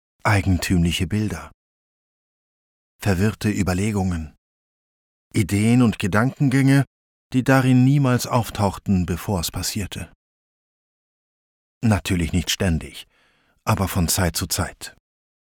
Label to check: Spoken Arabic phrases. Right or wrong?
Wrong